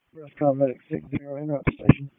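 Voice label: EMS Dispatch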